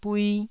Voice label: "bui1", taiwanese